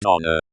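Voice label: "doner", British English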